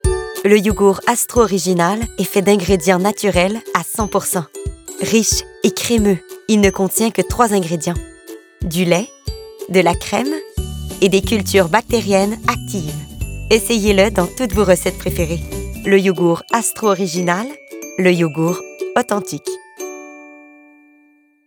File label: voice acting